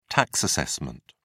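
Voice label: "tax assessment", British English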